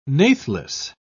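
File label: "natheless", British English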